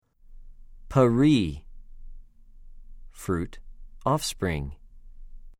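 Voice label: "פְּרִי", Hebrew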